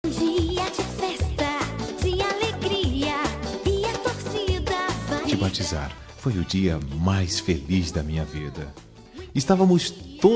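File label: male; Aniversário de Afilhado – Voz Masculina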